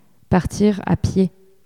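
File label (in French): "partir a pied", French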